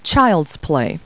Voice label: people, kid